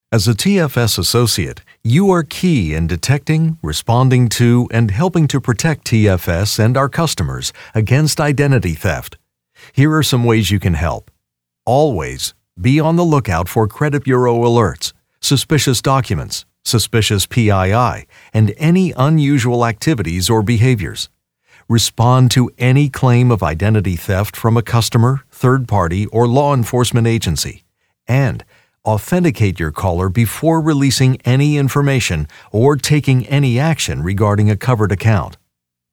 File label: E-learning Narrator